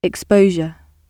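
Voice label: "exposure", British; gb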